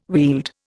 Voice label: "Weald", Indian